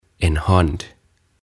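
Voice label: "En Hand", Swedish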